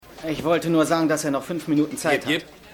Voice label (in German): Aufnahmeleiter, Stimmproben; Seinfeld